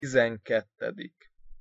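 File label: "tizenkettedik", Hungarian pronunciation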